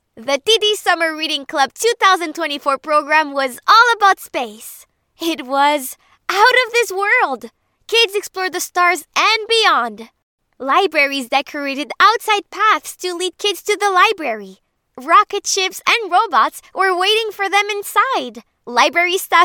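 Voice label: English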